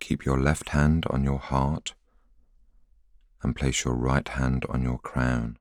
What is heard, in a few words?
voiceover